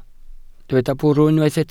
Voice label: "bwrw", Welsh

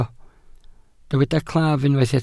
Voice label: "claf", welsh

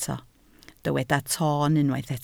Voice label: "toon", Welsh

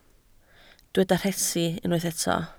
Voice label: "rhesi", Welsh

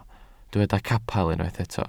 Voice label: "capel", Welsh

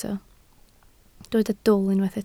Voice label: "dwl", Welsh

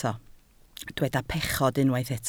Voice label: "pechod", Welsh

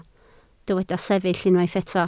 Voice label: "sefyll", Welsh

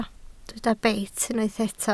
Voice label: "beit", Welsh

